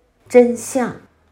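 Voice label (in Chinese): "真相", Chinese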